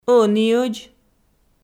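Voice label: "ӧніӧдз", Komi, female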